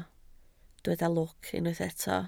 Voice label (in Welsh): "lwc", Welsh